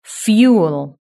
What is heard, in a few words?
"fuel", british english